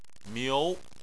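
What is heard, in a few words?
"miu", Chinese, second tone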